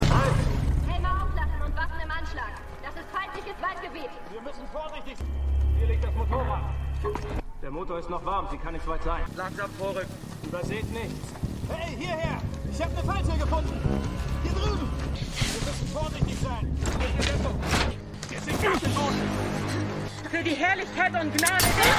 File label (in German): Suchteam, Stimmproben; "Was du mitnimmst" ("What You Take with You"); The 100